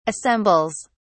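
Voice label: "assembles", British English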